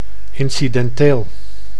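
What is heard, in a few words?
"incidenteel", Dutch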